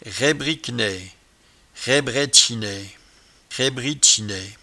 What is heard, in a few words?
"raibriquenaie", patois jura